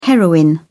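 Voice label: "heroine", British English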